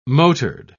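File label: "motored", British English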